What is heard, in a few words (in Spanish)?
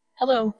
Voice Acting es